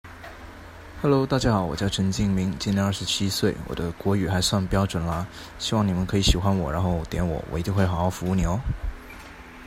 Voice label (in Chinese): 国语